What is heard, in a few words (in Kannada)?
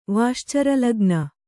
"ವಾಶ್ಚರಲಗ್ನ", Kannada